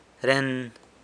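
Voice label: "ren", there, San Lucas Quiaviní Zapotec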